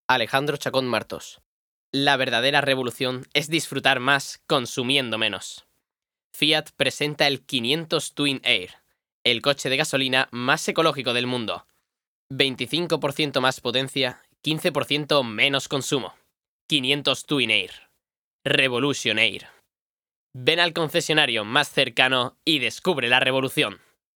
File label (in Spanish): Publicidad